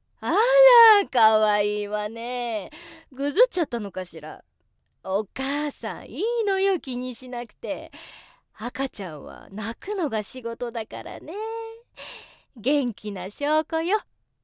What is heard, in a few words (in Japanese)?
おばさん 40代